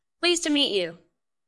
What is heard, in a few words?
VoiceActing es F